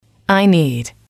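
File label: i need, English, female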